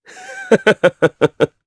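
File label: Vox Happy, Japanese; Riheet; kingsraid audio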